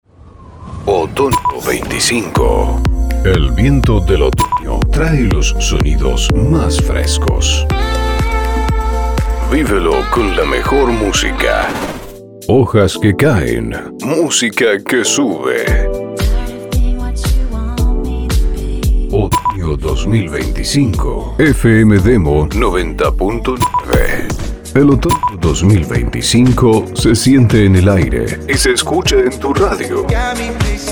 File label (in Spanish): NEUTRO; otono